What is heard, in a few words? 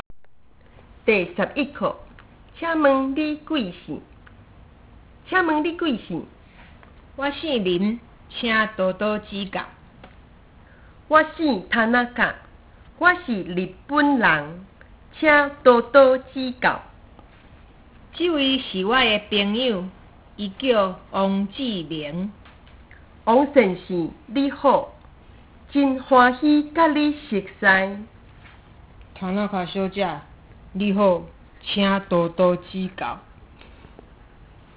Taiwanese